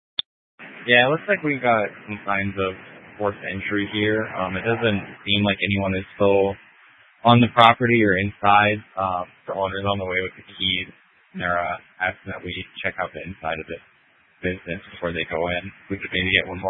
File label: Phx PD Dispatch, scanner; A05 500 Central City Prec; C- Chandler